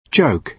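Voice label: "joke", British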